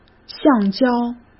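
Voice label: "橡胶", Mandarin Chinese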